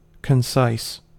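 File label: "concise", American English; pronunciations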